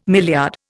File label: "milliard", Indian